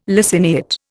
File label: "laciniate", Indian